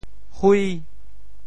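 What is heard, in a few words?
"hui5", Teochew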